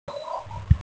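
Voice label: "zhi4zao4", Mandarin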